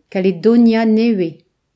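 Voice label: "new caledonia", Breton; gcompris; geography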